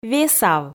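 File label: "весав", Komi, female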